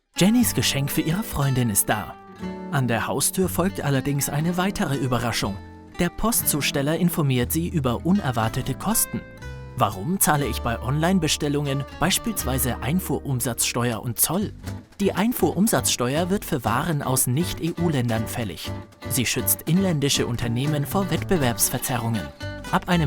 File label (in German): Erklaervideo; Bundesfinanzministerium